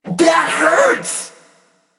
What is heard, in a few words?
hurt; 星航柯尔特; 巅峰通行证皮肤